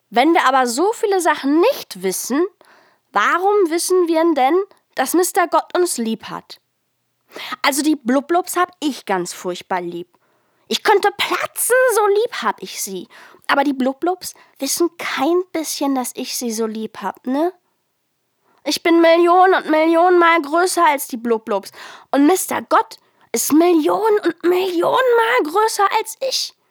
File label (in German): Hörspiel